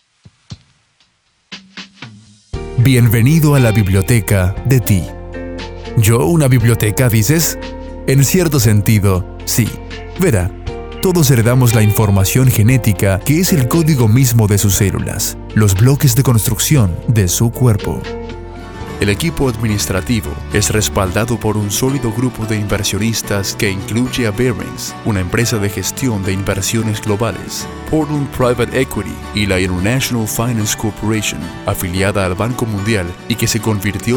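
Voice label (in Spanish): Narración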